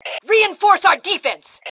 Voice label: Defend Reinforce, Female